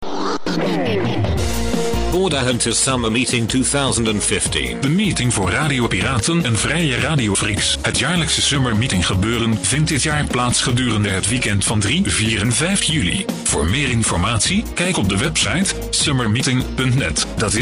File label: PROMO dutch; Summermeeting 2015